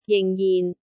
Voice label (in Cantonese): "jing4-jin4", cantonese